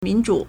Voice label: "min2zhu3", Chinese

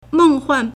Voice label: "meng4huan4", Chinese

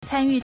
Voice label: "can1yu4", Chinese

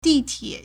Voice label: "di4 tie3", Chinese

